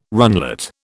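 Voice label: "runlet", British English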